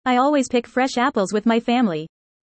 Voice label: fast